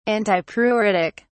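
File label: "antipruritic", British English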